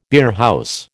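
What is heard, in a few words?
"beerhouse", American English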